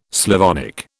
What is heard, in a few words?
"Slavonic", British